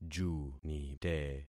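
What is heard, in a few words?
"junite", concatenated syllables; Affixing experiment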